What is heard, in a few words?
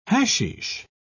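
"hasheesh", British English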